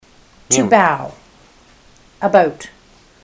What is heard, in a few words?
to bow about, ontario